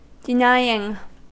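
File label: "denying", American English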